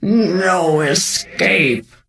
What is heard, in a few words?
NPC Death Knight Female Low Pissed